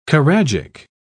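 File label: "choragic", British English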